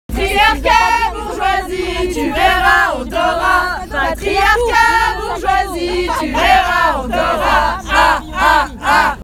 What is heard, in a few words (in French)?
message vocal